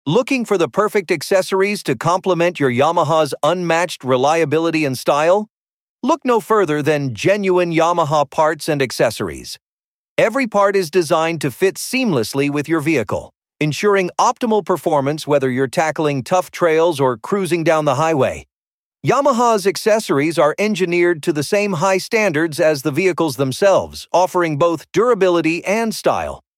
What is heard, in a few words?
Male